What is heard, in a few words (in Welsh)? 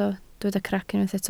"crac", Welsh